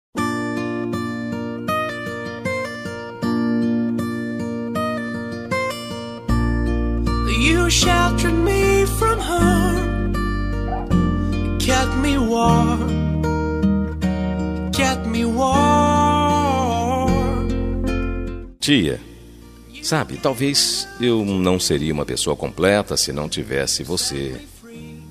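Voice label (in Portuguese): ANIV ATRASADO PARA TIA male, telemensagem aniversario atrasado; familia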